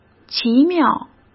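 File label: "奇妙", Mandarin Chinese; chinese-flashcards; hsk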